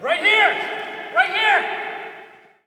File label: Hockey Male "Right Here"; Hockey Vocal Sounds